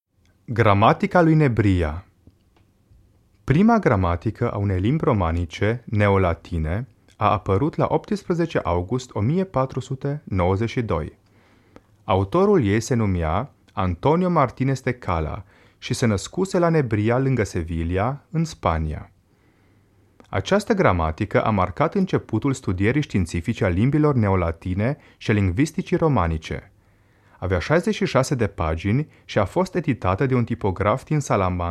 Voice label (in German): ro sachtext, rumaenisch